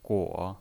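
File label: "guo", Mandarin Chinese, third tone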